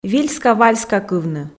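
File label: "вильска-вальскакывны", Komi, female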